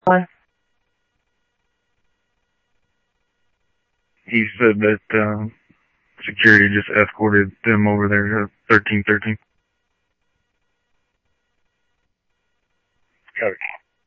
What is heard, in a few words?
Info Sheriff Common, scanner audio trunk